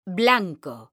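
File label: "BLANCO", Spanish, female; mekanta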